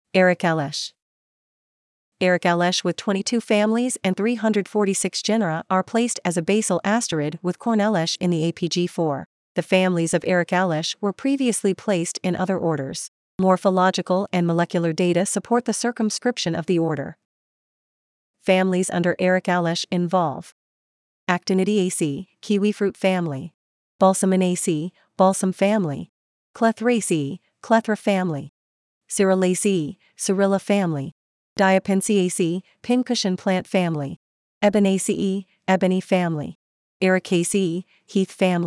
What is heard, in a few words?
Ericales Pronunciation